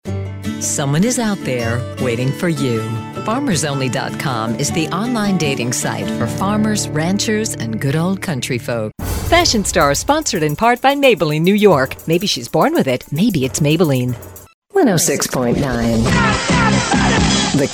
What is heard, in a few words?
Voice Talent Sampler